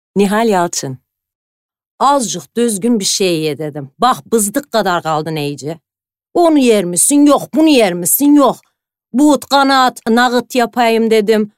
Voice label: diyalekt, turkish dialect voices